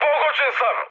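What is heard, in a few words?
Serbian aircraft destroyed mood high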